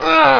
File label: co pain, cowboy; wanted